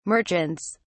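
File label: "merchants", British English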